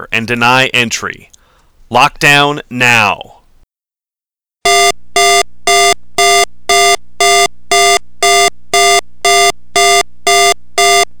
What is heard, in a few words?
POLICE EMERGENCY Message LOUD; emergency Management